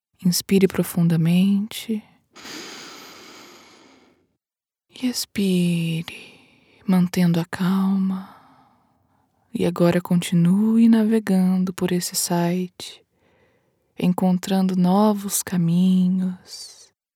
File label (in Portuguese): meditation instructor female brazilian portuguese